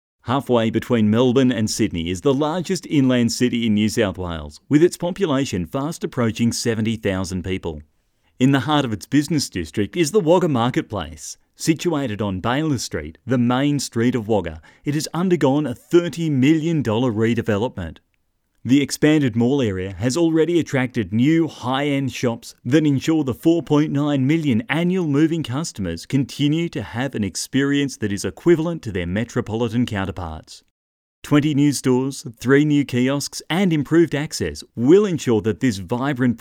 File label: Video VO; Wagga Marketplace